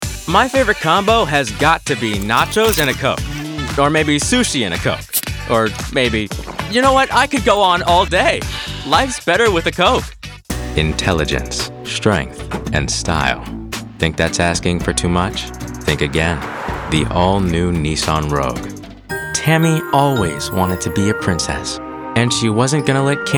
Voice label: commercial